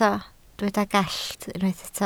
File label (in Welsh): "gallt", Welsh